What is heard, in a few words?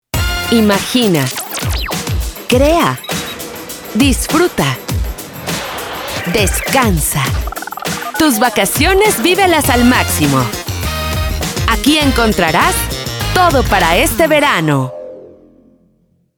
Optimistic Contemporary Fashionist